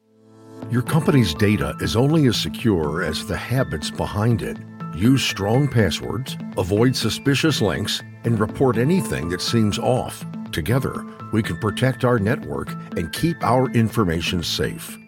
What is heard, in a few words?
Narration